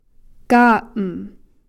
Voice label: "kaa'm", two

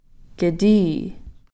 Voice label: "kitii'", in back of

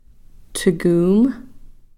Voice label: "thkuum", Cow Creek

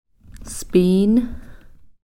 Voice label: "spiin", Cow Creek; beaver

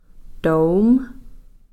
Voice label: "toowm", Cow Creek

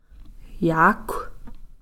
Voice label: "yaakhw", wildcat

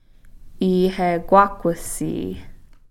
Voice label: "iiheekwakhwsii", worker

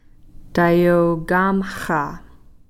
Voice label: "tayoowkamxa", Cow Creek; in-autumn

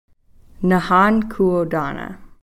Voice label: "Nahankhuotana", Cow Creek; Cow Creek People

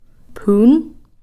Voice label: "phun", rotten

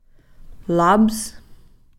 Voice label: "laps", Cow Creek; blanket

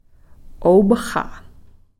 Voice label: "opxa", older brother

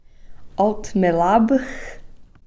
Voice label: "althmilapx", Cow Creek

